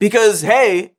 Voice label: "because hey", Voice; ooh ahh